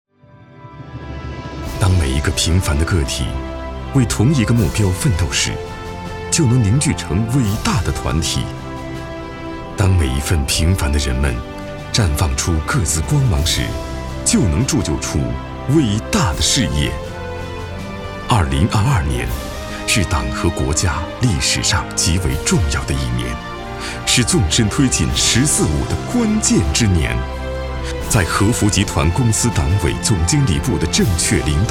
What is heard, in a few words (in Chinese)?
企业回顾片